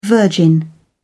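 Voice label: "the virgin", British English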